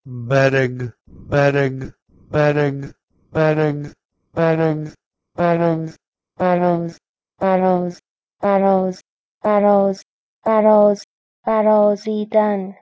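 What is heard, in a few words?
Proto-Indo-European bherh1g to Persian barozidan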